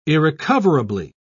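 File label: "irrecoverably", British English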